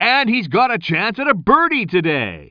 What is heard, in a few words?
commentator